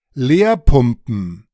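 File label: "leerpumpen", German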